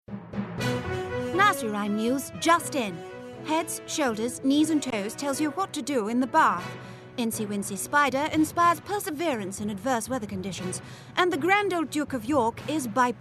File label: COMEDY